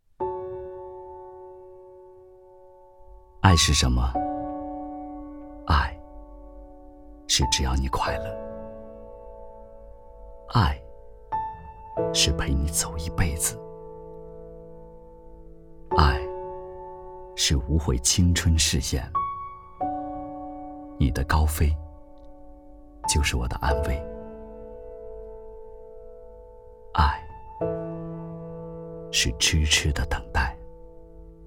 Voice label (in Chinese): 广告 公益广告 温情版; 妈妈的等待